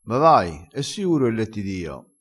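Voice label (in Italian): "siuro", vocabolario dialettale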